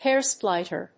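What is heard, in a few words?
"hairsplitter", English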